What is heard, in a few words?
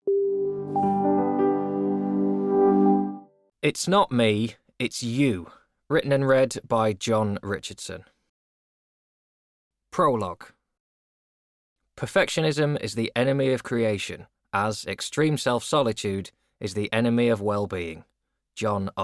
Voiceover; Jon Richardson